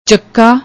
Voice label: "chakka", Punjabi